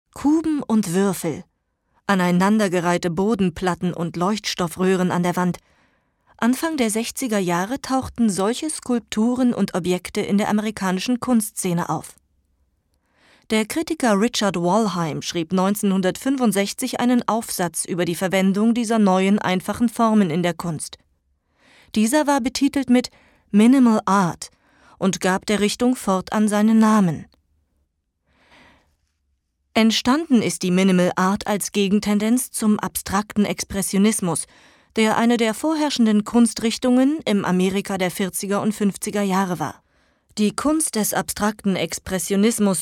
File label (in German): elearning, deutsch frauen